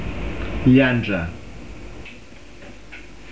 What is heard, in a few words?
"gliandra", Carigliaro